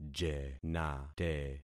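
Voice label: "jenate", concatenated syllables; Affixing experiment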